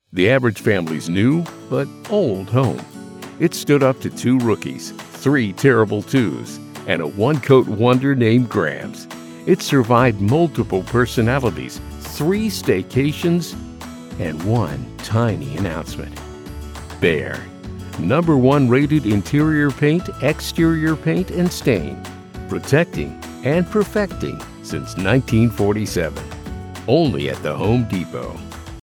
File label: Tongue in Cheek; Warm, Authentic Voice with Broadcast Experience